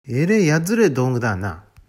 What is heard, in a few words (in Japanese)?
「やっづれー」, 旭弁 銚子弁; やりづらい; 「えれーやっづれー道具だぁな」; （訳）すごくやりづらい道具だね